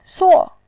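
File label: "suo", Mandarin Chinese, fourth tone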